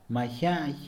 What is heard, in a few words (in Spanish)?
"multitud", Mixe, hombre; Cantidad; INALI